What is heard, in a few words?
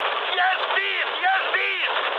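Russian aircraft destroyed mood high, speech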